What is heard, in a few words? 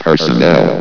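"personnel", male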